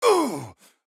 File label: Hurt; LeBron